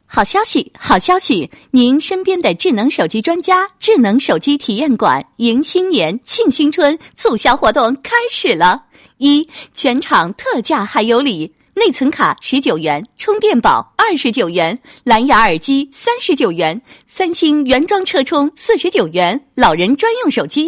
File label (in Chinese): 女 广告